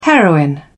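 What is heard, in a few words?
"heroin", British English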